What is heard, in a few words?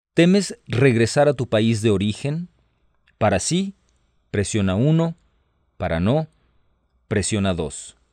Spanish, hotline